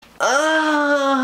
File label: female sound